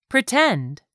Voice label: "pretend", english; 3000 words